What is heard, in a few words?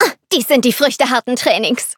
level up, de